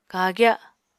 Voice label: "kagya", Apatani